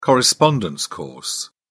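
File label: "correspondence course", British English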